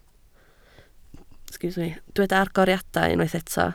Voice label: "agoriadau", Welsh

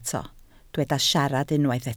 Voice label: "siarad", Welsh

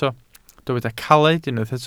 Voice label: "caled", Welsh

